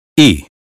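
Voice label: American phonetics sound happy; 英语音标发音（剑桥版）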